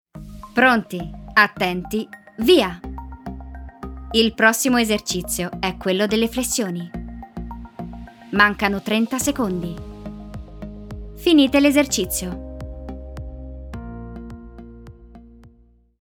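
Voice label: Italian, Commercial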